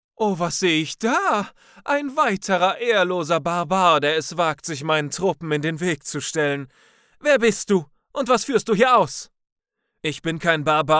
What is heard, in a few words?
german animation